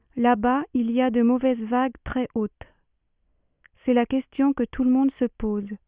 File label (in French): Voix Femme